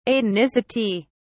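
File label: "atonicity", English, uk British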